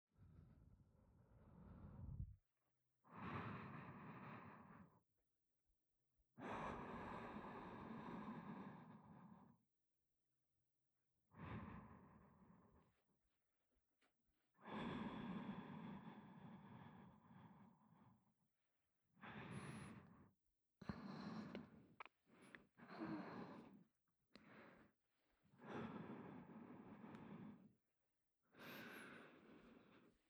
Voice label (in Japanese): リラックス安眠用添い寝トラック; 【ライブフォーリー】匂いフェチのドM女と巣ごもりえっち「おちんぽケースのお尻叩きお願いします」